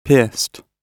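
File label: "pierced", British English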